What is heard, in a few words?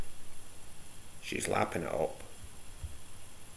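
"lappin", slang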